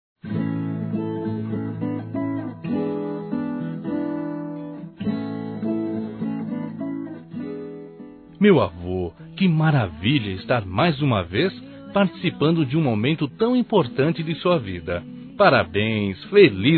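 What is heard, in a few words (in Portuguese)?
Masculino, Telemensagens Aniversários; DO AVO; Telemensagens 2017; Aniv Familiar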